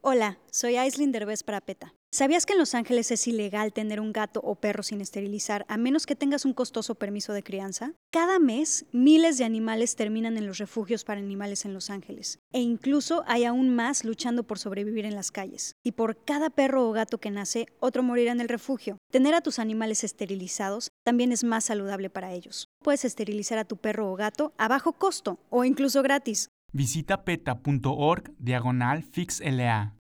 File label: lets fix la radio psa spanish; aislinn derbez; peta